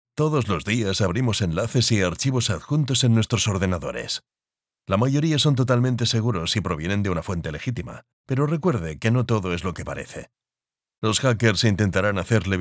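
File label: Spanish, male, e-learning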